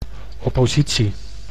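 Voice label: "oppositie", Dutch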